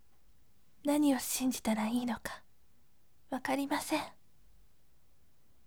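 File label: "naniwoshinjitaraiinoka", gentle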